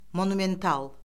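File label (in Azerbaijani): "monumental", Azerbaijani; Orfoqrafiya Lüğəti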